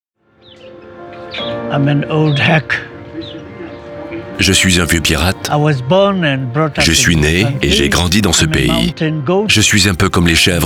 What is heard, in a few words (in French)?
comédien voix off; Lyon